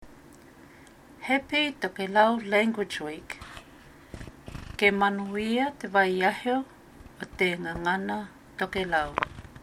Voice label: Happy Tokelau Language Week; 2022 Pacific Language Weeks